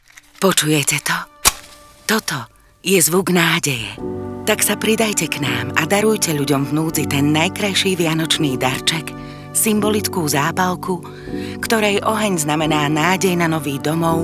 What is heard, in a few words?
RADIO